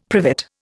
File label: "privet", Indian; Dictionary